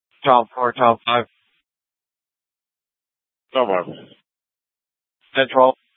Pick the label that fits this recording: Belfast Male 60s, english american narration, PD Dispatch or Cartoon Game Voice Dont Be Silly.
PD Dispatch